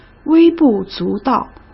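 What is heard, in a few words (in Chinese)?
"微不足道", Mandarin Chinese; hsk; chinese-flashcards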